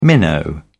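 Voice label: "minnow", British English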